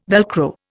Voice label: "Velcro", Indian